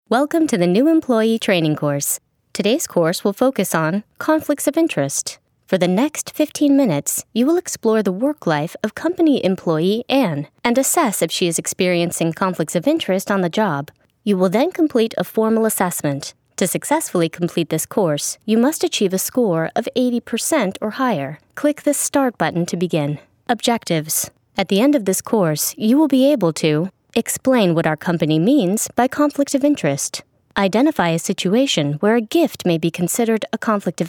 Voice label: eLearning